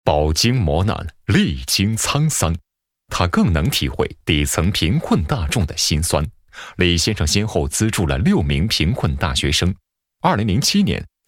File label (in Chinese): 男 人物介绍; 企业家李先生介绍